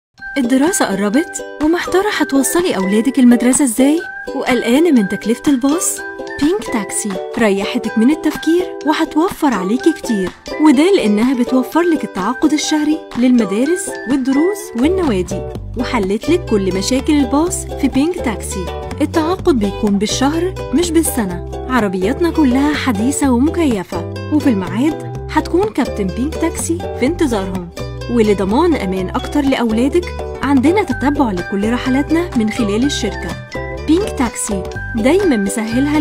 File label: voiceover